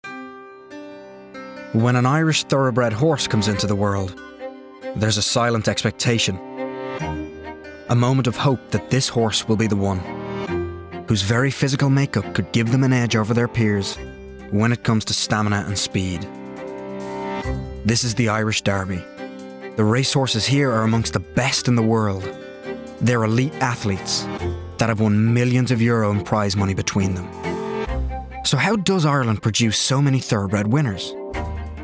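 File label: documentary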